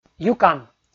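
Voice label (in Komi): "юкан", Komi, male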